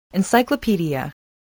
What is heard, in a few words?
"encyclopaedia", spelling